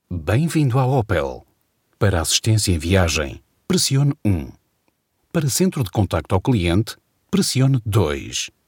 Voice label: Portuguese voiceover telephone